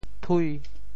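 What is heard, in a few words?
"thui5", Teochew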